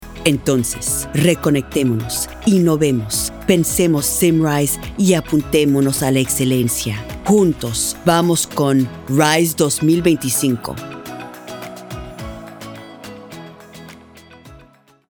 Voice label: Esp; Symrise